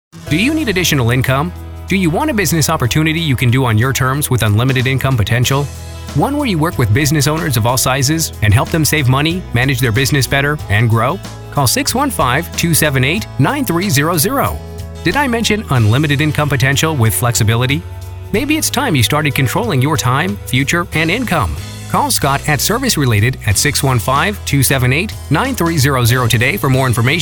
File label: Radio Spot